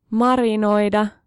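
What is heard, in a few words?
Finnish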